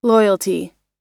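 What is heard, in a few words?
"loyalty", US English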